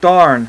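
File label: "Darn", male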